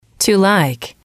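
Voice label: "to like", English, female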